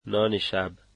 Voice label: dinner, dari